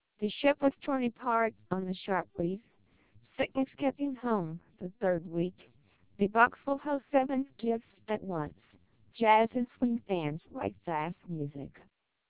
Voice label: speech, American, 600 bps TWELP